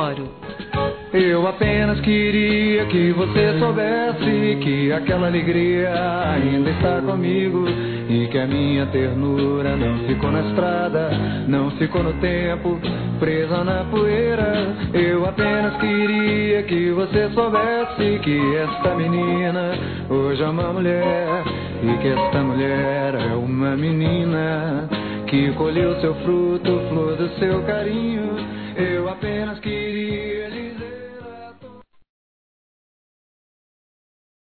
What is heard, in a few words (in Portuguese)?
AMIZADE F, Telemensagens